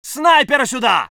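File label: voice soviet infantry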